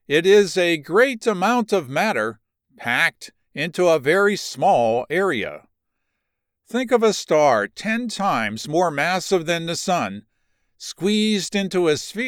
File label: Black Holes, science medical narration